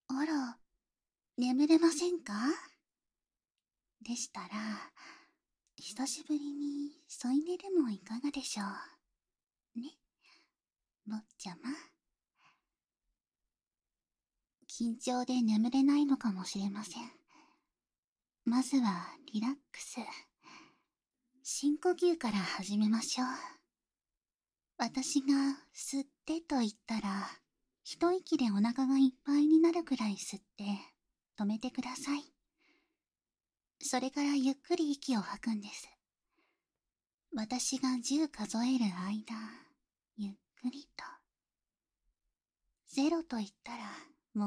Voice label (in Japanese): 入眠カウントダウン[添い寝]